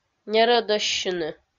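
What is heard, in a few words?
"нерӧдӧссьыны", Komi, female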